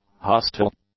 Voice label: "hostile", English; adjectives

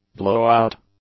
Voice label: "blow-out", English; nouns

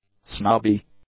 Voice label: "snobby", english; adjectives

